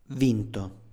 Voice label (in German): "vinto", Italienisch; besiegt; Standard-Italienisch